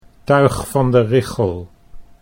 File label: "tuig van de richel", Dutch; 'Bad people, scum'